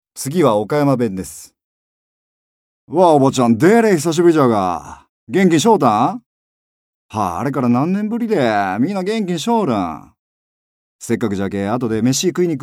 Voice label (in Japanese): 方言